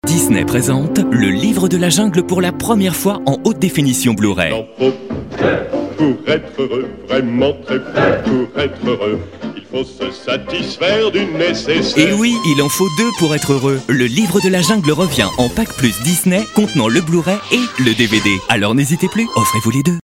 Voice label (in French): souriant complice, voix off jeunesse